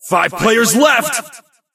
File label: announcer 5 players left